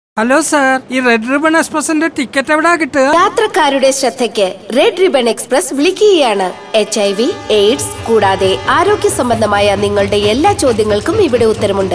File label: Radio Malayalam